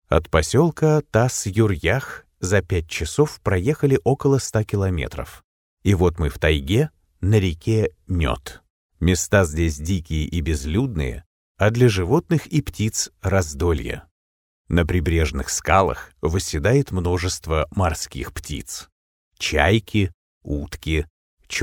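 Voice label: documentary, Russian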